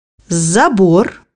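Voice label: "fence", Russian; bukvar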